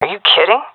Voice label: disagree, fem; vo; agents; Source Model Packs; Counter Strike Model Pack; CS2